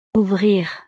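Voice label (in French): "ouvrir", French; Abrir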